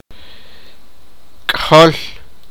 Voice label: "son", sipakapense